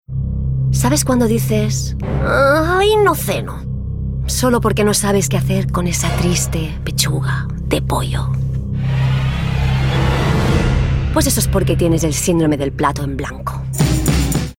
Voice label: female spanish food comercial; ideasonora

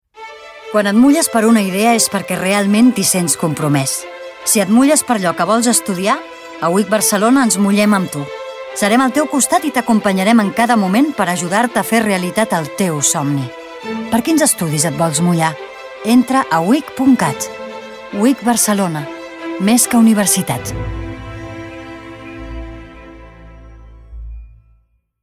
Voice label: female catalan comercial; ideasonora

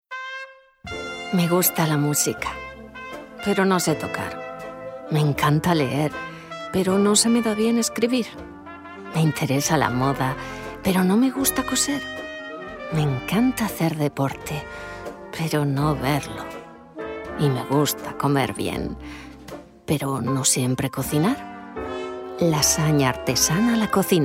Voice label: female spanish food comercial; ideasonora

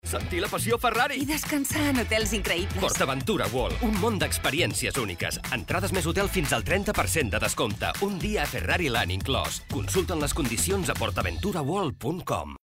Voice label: male catalan theme park comercial; ideasonora

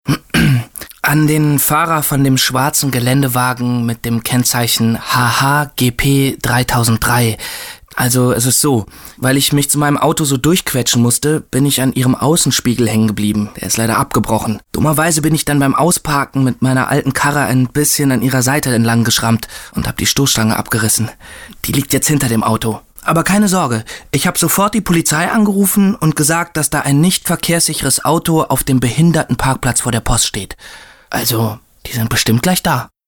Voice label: male german commercial; ideasonora

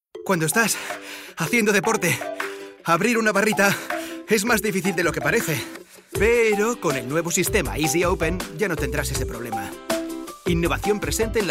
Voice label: male spanish sport comercial; ideasonora

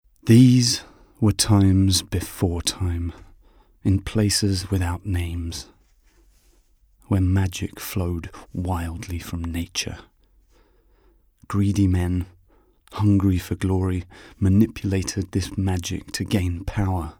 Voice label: male british english book comercial; ideasonora

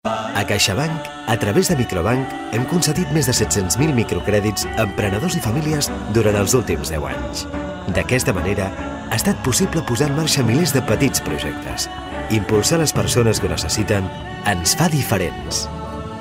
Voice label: male catalan bank comercial; ideasonora

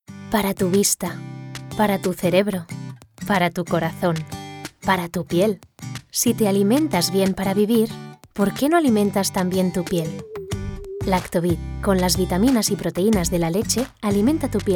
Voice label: female spanish comercial

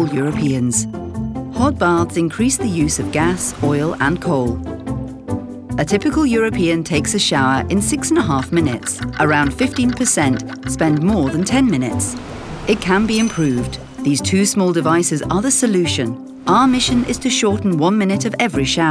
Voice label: female british english water comercial; ideasonora

